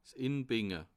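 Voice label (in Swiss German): "inbinge", Bas Rhin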